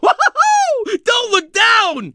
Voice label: clown funny plate